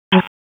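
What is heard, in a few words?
Raleigh Police Northeast District Dispatch, p25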